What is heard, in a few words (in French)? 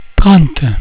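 "trente", French; cat numeration doigts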